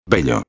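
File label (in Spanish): "bello", Spanish; ortografia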